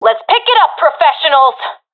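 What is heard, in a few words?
round losing, professional female; vo; Counter Strike Model Pack; CS:GO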